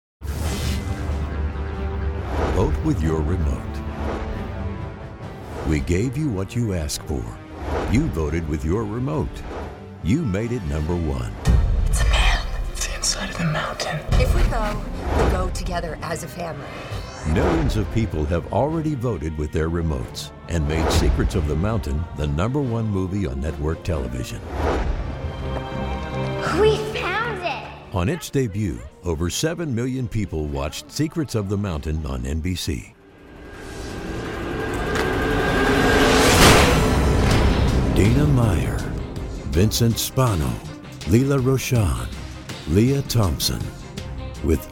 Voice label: Trailer

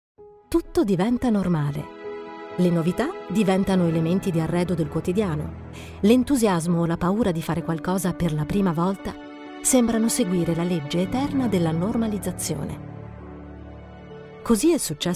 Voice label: Podcast

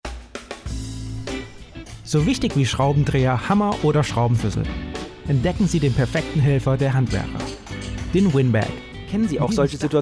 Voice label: German Commercial

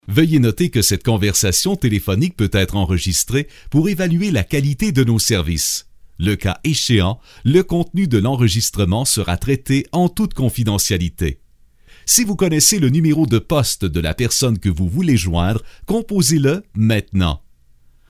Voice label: Message IVR

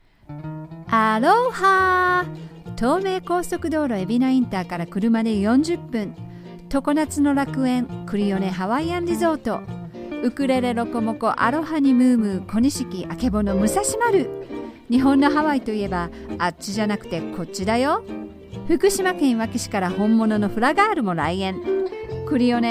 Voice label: Japanese Voice

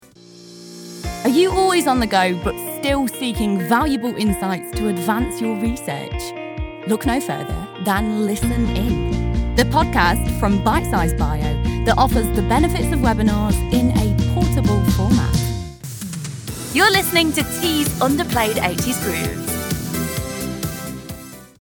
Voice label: podcast

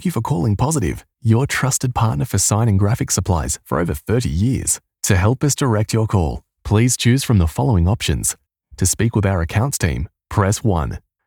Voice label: On Hold

